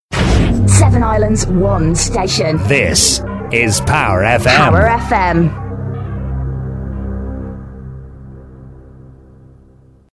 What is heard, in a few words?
m&f, jingles; 7 islands 1 station